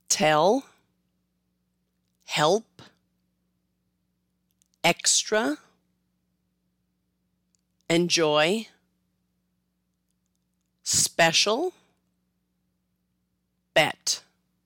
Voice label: Short E, long and short vowels in American English